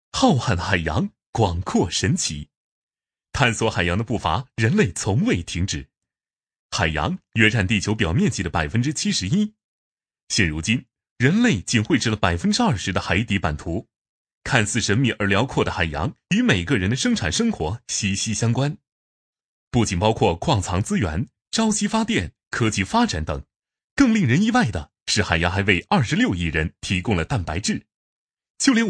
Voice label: 男 MG; 关爱海洋; 【男1号MG】关爱海洋; 飞碟说动画

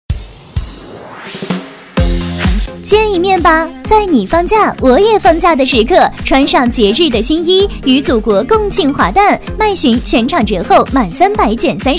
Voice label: 女 促销 女装; 麦寻女装

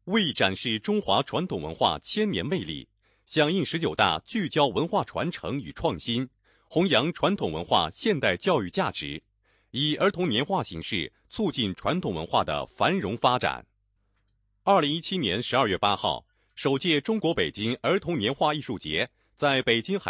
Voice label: 男29号新闻 新闻通讯

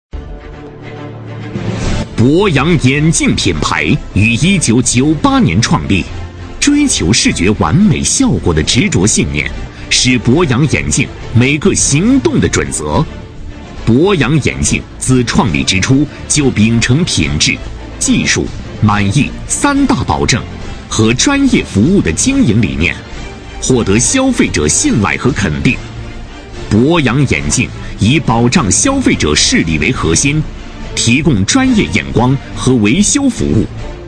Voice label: 男 广告; 博洋眼镜品牌

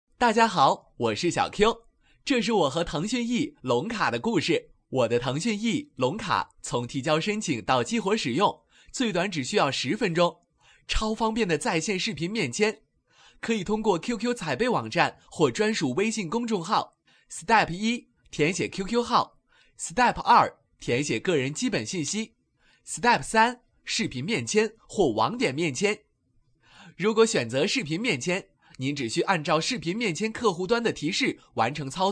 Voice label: 男 角色模仿

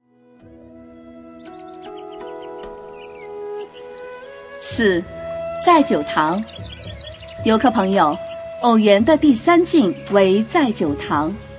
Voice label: 女 景点介绍 苏州

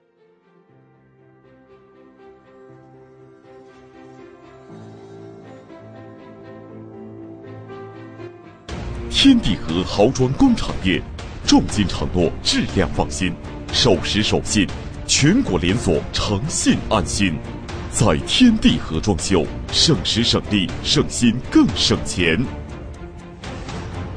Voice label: 男 广告 大气力度

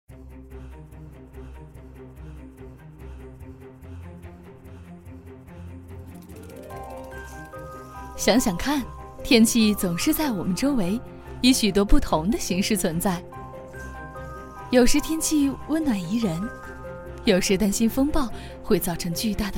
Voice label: 女 专题; 天气是怎样形成的

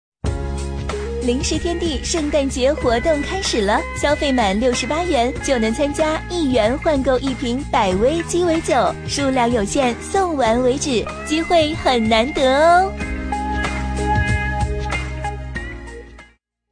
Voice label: 女 广告 零食天地

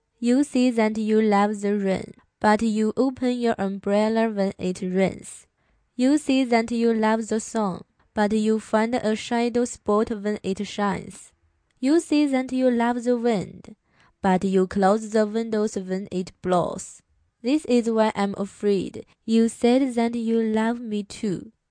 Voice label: 女 英文

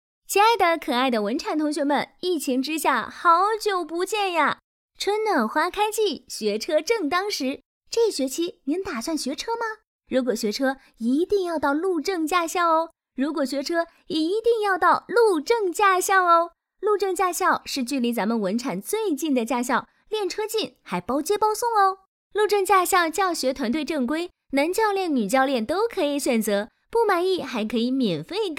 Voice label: 女9号广播 驾校文产语音播报

